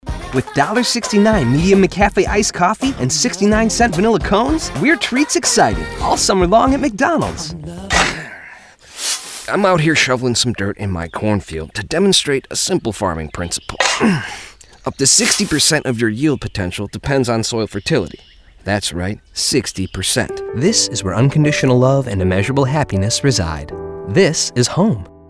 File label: Commercial